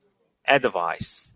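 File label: "advice", Taiwanese, male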